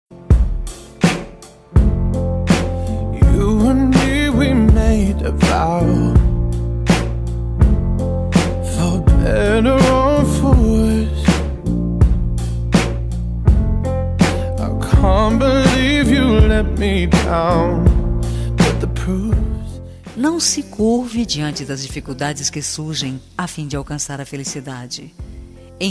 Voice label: MENSAGEM DE OTIMISMO F, telemensagem

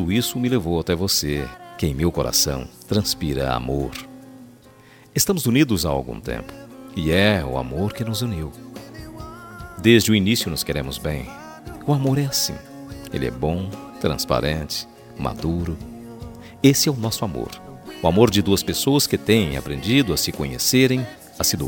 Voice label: ANIV PARA QUEM GOSTA MASC, telemensagem

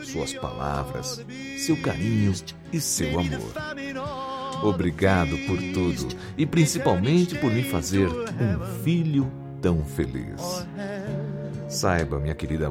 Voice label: Você é um exemplo para mãe idosa, telemensagem dia das mães

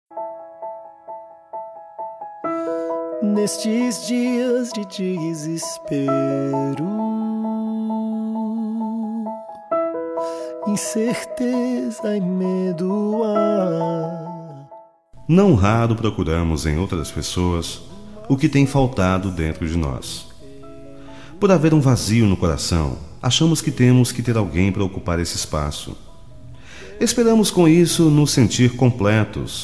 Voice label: aniversario de pastor masculino, telemensagem evangelica

